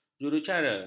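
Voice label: "juruacara", Malay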